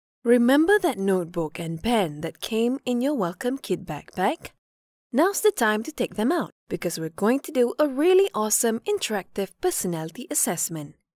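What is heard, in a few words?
Malay, female; voice over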